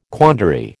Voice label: "quandary", American